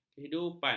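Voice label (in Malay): "kehidupan", Malay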